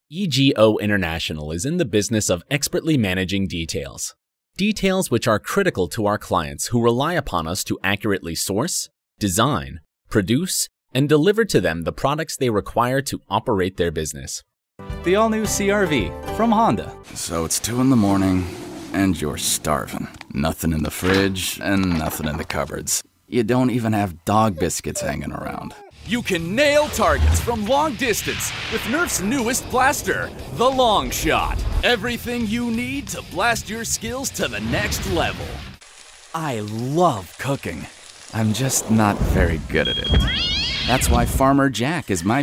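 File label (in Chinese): 外籍男英_外语_美式英语_稳重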